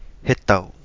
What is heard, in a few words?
Kapingamarangi; tree hetau